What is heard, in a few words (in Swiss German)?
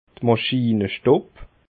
"maschinestub", alsace